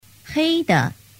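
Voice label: "heide", Mandarin Chinese; thanh nhe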